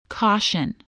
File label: "caution", spelling